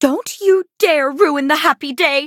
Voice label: battle en